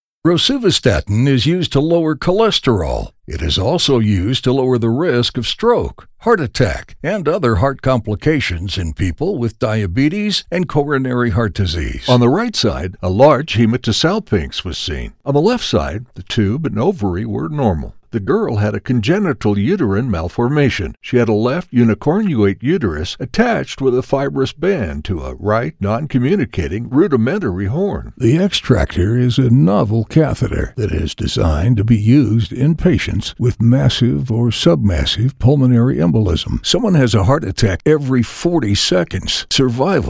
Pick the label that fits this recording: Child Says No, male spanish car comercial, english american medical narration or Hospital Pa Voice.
english american medical narration